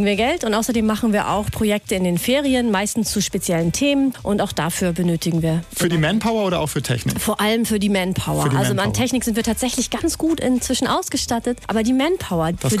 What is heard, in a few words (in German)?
radio hamburg ausschnitt